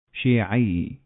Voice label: "Shiite", Arabic

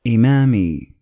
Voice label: "Imami", Arabic

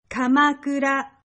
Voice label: "Kamakura", Japanese

